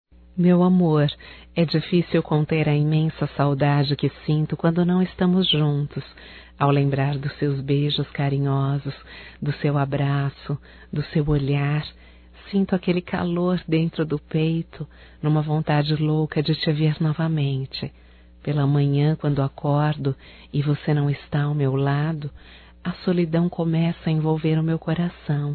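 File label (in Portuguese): SAUDADES ROMÂNTICA feminino, Telemensagens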